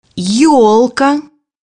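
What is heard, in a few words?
"fir tree", Russian; bukvar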